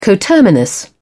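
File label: "coterminous", British English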